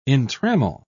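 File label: "entrammel", English, British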